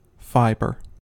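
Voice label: "fiber", US English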